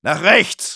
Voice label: head right, de; Engineer

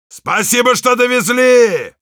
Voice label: thanks for the teleporter, ru; Heavy

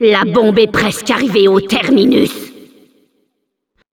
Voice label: Announcer cart defender final warning, fr

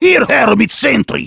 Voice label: needs entry, de; Heavy

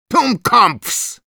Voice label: jeers, ru; Medic; «DUMMKOPFS!»(Перевод: «Идиоты!»)

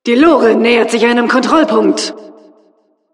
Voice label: announcer cart defender warning, de

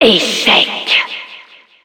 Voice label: Announcer failure fr

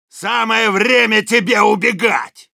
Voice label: taunts, ru; Soldier; «Самое время тебе убегать!»

